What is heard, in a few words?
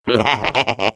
Defective Turret defect laugh, de; sp sabotage factory